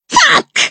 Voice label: irate japanese girl